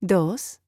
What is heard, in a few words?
Spanish Number